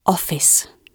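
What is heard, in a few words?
"office", British English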